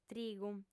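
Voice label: "trigu", Sardinian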